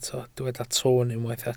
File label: "toon", Welsh